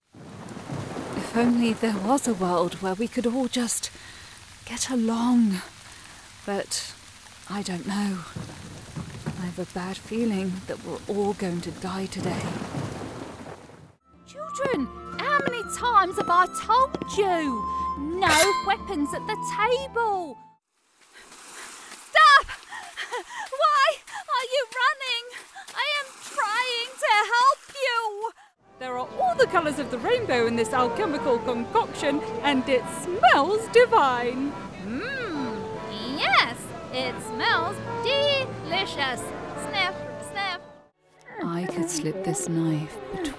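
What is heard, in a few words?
character, British voice actor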